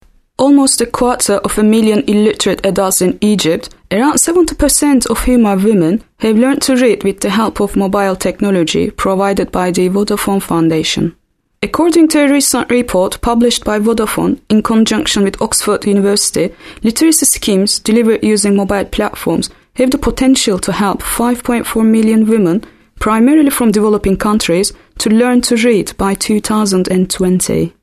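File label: Turkish English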